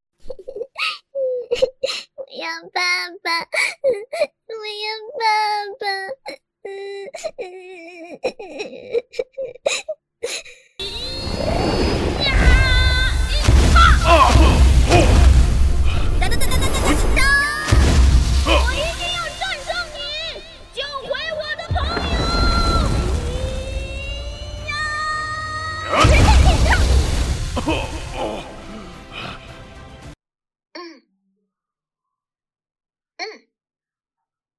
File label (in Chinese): 动漫 不同 年龄 性别 角色 情绪 声展